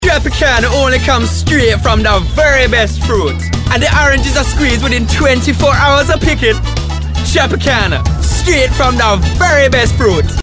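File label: caribbean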